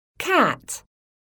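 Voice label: "CAT", English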